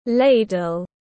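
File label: "ladle", English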